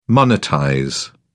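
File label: "monetize", English, British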